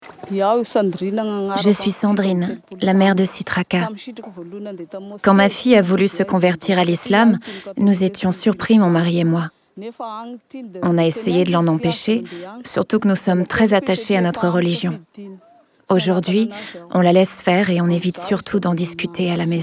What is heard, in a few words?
VOICEOVER FR; Maman malgache